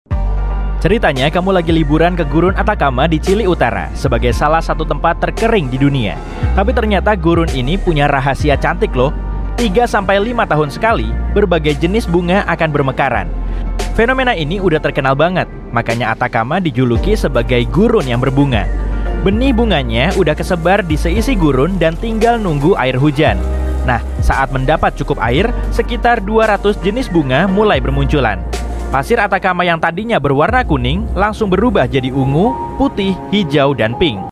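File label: Bahasa Indonesia Feature; Digital Sisi Terang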